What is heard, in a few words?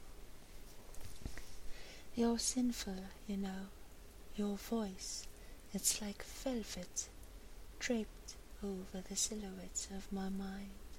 Poetry, Sensual; F4A; Sinful